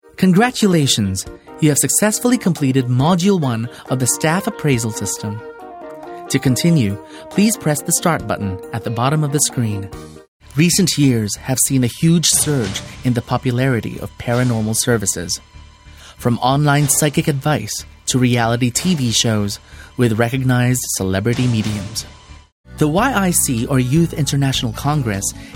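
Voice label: American English, narration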